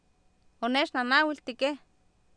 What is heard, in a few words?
Oapan minimal pairs, female; oonEechnanAawIltikEh; Oapan minimal pairs 2000